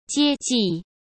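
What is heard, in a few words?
"接济", Mandarin Chinese; hsk; chinese-flashcards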